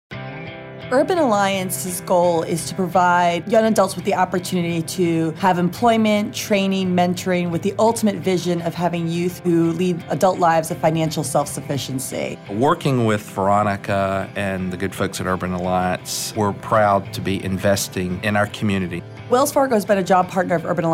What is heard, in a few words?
Commercial